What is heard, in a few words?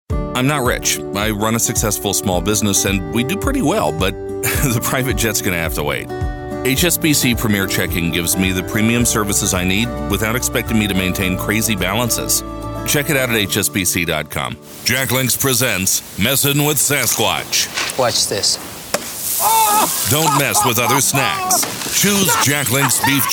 Commercial conversational